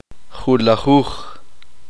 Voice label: uspanteko; once